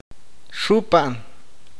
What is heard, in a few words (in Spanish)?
"soplar", awakateko